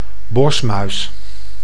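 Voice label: "bosmuis", Dutch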